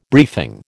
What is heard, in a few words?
"briefing", American